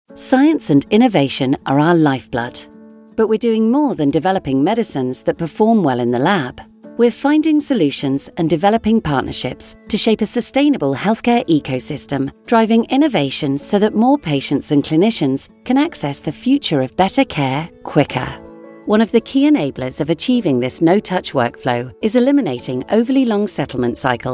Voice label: e-learning

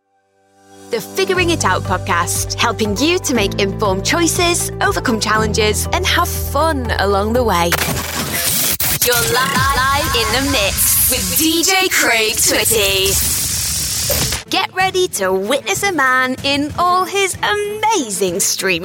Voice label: imaging

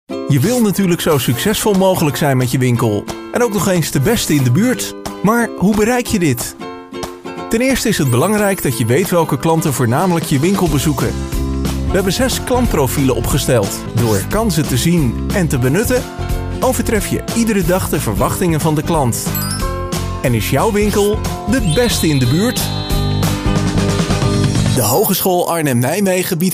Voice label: dutch male; showreel

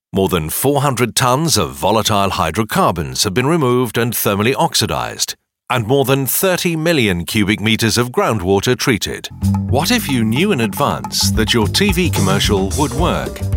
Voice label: corporate narration

